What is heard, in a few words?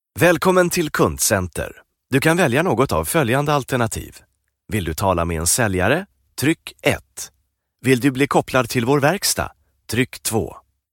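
swedish interactive voice response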